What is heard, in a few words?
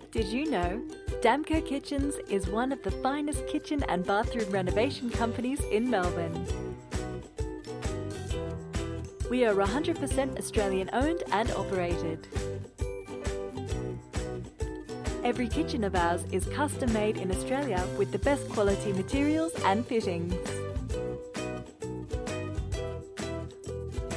Friendly light Message On Hold Style